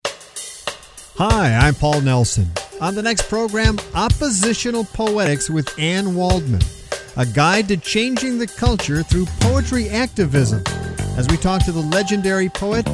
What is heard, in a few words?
Promo; Anne Waldman